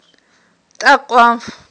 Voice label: "тIакьванф", Aghul

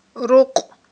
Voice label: "рукь", Aghul

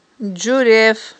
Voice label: "джуреф", Aghul

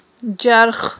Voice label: "джарх", Aghul

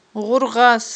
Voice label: "гъургъас", Aghul

